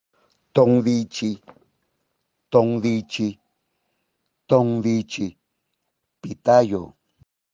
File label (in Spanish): pitayo, mixteco; vocabulario palabras en mixteco